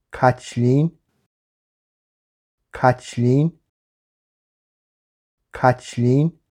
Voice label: "Caitlin" Irish names for girls